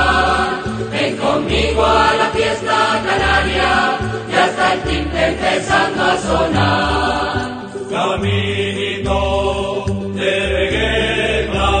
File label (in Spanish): Indicativo Radio; Radio Vegueta; Radio Carrizal